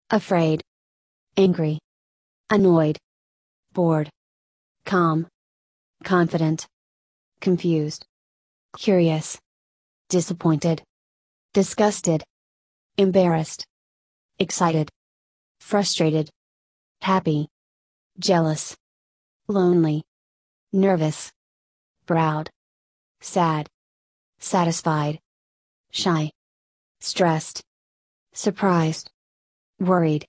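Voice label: American; emotions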